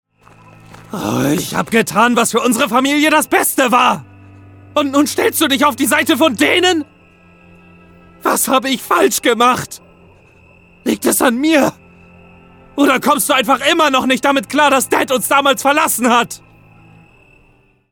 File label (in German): Wuetend laut